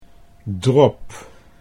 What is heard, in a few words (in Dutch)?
"drop", Dutch